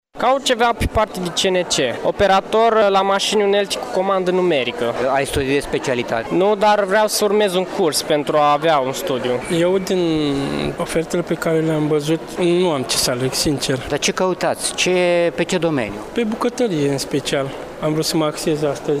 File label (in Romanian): vox pop; iasi peste 1500 de joburi oferite la bursa locurilor de munca